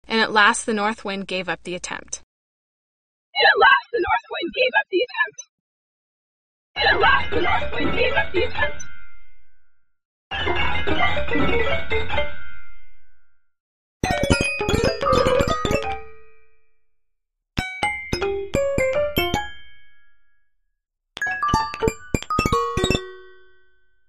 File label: formant transformation